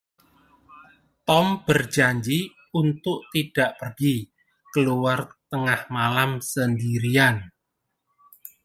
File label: sample indonesian